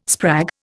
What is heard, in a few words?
"sprag", Indian